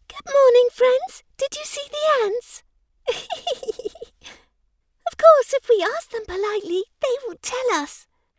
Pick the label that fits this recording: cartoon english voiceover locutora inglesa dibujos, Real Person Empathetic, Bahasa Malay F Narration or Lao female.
cartoon english voiceover locutora inglesa dibujos